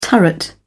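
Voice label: "turret", British English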